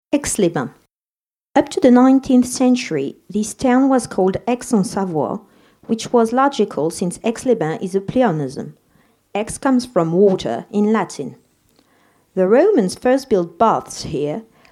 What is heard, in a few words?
UK, audio guide; AIX LES BAINS